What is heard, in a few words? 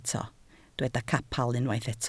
"capel", Welsh